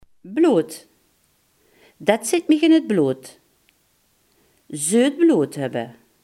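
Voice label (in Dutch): "bloed" Limburgish dialect word; bloed, blood; Det zitj mich in 't blood.; Zeut blood höbbe.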